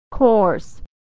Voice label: "coarse", pronunciation

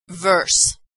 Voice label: "verse", pronunciation

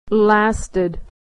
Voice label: "lasted", pronunciation

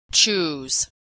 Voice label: verb "choose", pronunciation

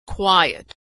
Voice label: w "quiet", pronunciation

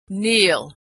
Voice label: verb "kneel", pronunciation

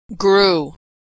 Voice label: verb "grew", pronunciation

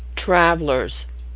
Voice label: "travelers", pronunciation

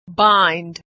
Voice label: verb "bind", pronunciation

